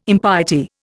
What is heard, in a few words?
"impiety", Indian